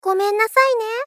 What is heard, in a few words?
Gentle Apologize Gomen nasai ne; Rei Yumesaki